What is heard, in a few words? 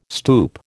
"stoup", American